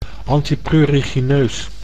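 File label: "antiprurigineus", Dutch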